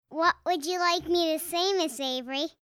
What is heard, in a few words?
little girl voice; time-lab